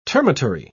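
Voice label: "termitary", British English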